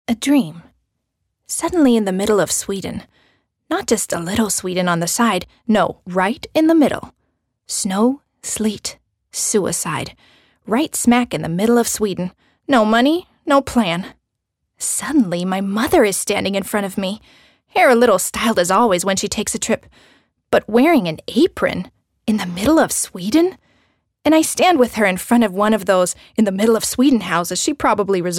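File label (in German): US English, Schauspiel Freundlich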